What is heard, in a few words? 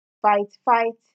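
"fight fight", Nigerian Pidgin, female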